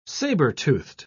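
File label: "sabre-toothed", British English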